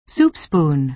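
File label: "soup spoon", American English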